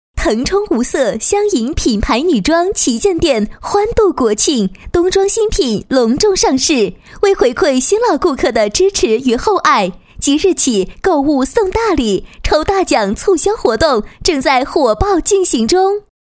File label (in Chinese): 广告, 国语女声配音; 香影品牌女装